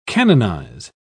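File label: "canonise", British English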